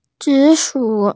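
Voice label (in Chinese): "zi3shu3", Mandarin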